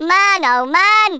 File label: "oh dear", Brummie; Worms Armageddon